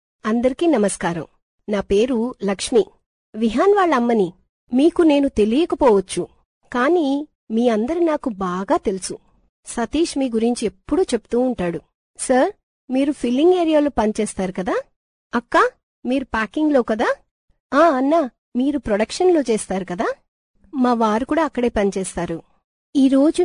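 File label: telugu female voice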